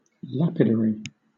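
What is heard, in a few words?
"washout", British English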